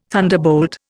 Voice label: "thunderbolt", Indian

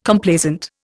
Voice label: "Complaisant", Indian

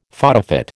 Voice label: "photofit", American

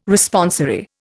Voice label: "Responsory", Indian

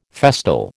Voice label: "festal", American

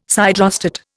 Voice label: "siderostat", Indian

